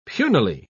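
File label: "punily", British English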